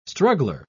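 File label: "struggler", British English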